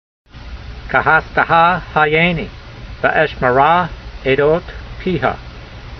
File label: voice, hebrew language; Psalm 119:88; kehasdeha ha'yaynee, ve'eshmerah aydot pee'ha